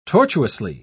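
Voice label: "tortuously", English, British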